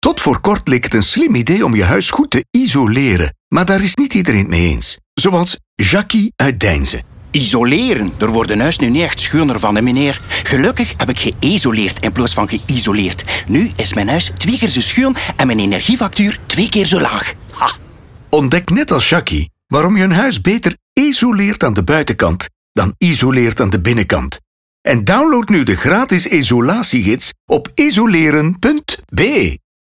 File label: man spot RADIO; Vandersanden Group